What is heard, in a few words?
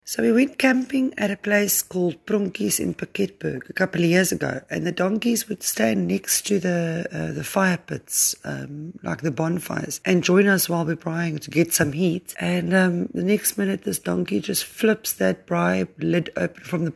donkey voice note